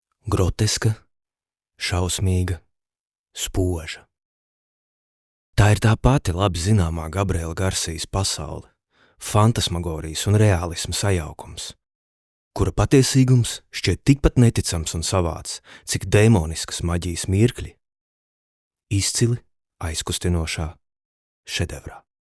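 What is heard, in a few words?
Latvian, General; GoLocalise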